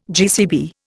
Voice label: "GCB", Indian